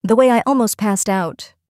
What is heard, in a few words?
almost passed out